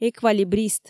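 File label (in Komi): "эквалибрист", Komi, female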